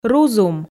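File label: "рузум", Komi, female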